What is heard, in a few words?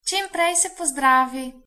get well soon, slovenian; Čimprej se pozdravi